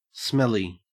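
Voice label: "smelly", Australian English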